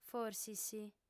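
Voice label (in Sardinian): "forsis", female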